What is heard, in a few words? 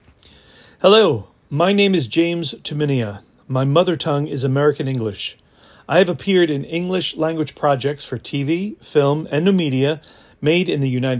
English American